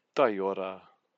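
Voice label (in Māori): "taiora", Māori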